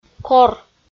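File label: "кор" Komi, female; audio dict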